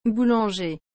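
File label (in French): "boulanger", French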